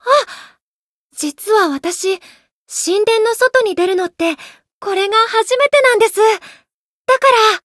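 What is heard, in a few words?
story, Japanese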